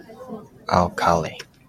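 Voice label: "alkali", Japanese, male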